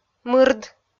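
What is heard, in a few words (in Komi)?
"мырд", Komi, female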